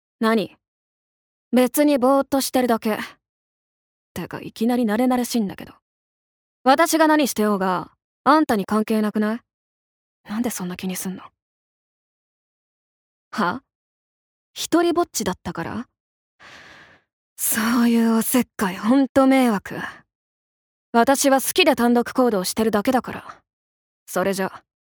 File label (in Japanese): アニメ